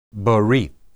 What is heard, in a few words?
"berith", Hebrew